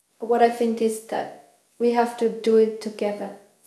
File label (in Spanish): Voice Acting es F0